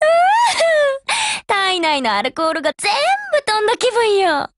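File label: WIN Japanese, Voice; GF Alarm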